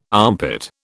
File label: "armpit", British